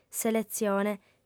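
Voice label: "seletzione", Sardinian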